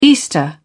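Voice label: "easter", British English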